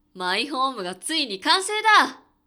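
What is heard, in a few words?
male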